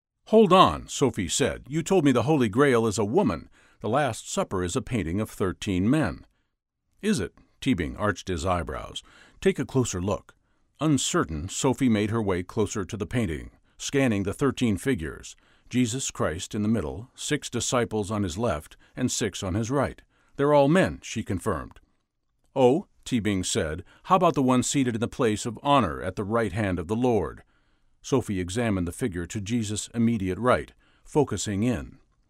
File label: Audio Book